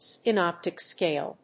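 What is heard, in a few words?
"synoptic scale", English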